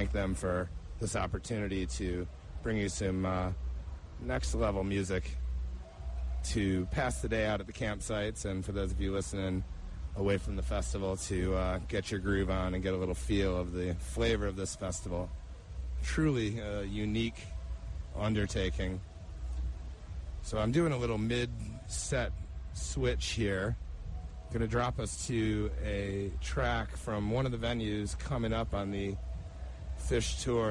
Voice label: radio banter; Bonnaroo